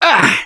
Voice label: Hurt Minor, russian; Gambler

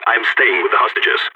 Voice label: guarding hostages, balkan; Counter-Strike Global Offensive

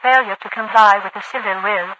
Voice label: failure to comply, overwatch radio voice; Garry's Mod; hl2

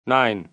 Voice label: "no", taunt

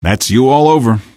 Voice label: answer, male; Garry's Mod; hl2

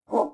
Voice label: Gasmask Fort Vox; Beyond the Sword; Units

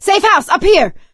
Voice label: safe spot ahead, survivor voice; Left 4 Dead 2; producer

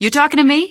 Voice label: answer, female; Garry's Mod; hl2

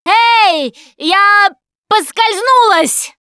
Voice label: ledge hang start, russian; Producer

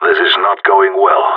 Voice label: scared emote; player vo gsg9

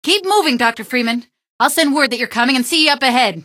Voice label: keep moving, female; Garry's Mod; hl2; Streetwar; tunnel

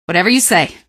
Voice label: squad affirm, female; Garry's Mod; hl2

